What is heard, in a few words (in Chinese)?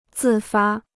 "自发", Chinese